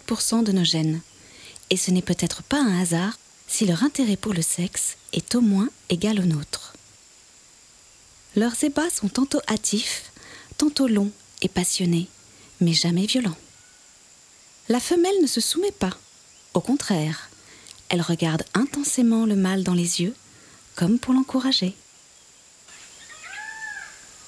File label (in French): Doc Bonobo, voix; Tessiture Voix Médium – Aiguë; Docu Bonobo